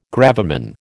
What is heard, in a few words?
"gravamen", American